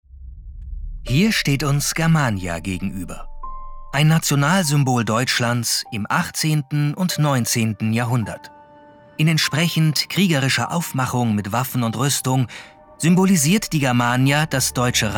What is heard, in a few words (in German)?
Museumsguide; LOFT VOICES